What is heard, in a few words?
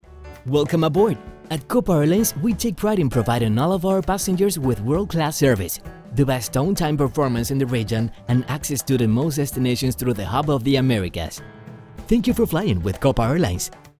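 Spanish English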